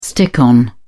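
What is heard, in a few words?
"stick on", British English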